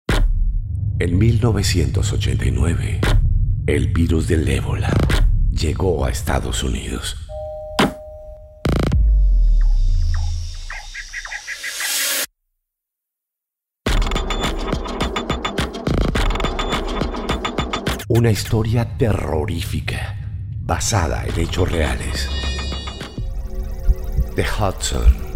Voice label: spanish latin american movie trailers